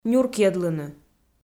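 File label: "нюркйӧдлыны", Komi, female; kpv audio dict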